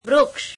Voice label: gujarati tree